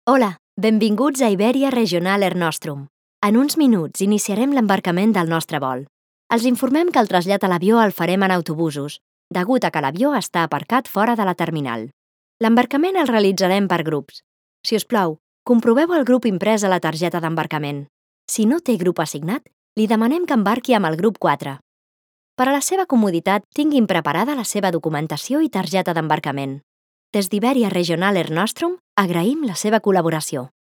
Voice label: catalan

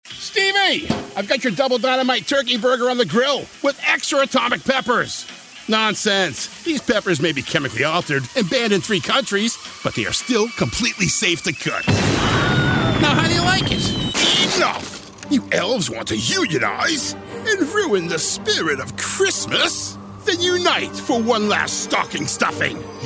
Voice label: english american animation

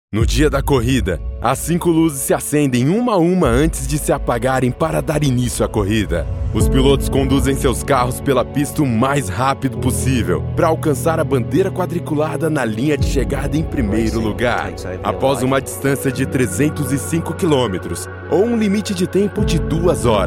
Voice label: portuguese brazilian movie trailers

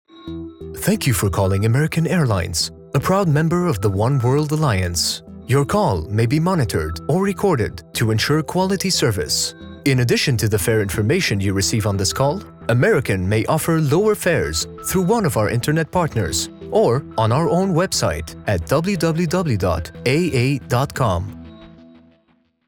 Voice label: english ivr

